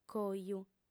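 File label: "coju", female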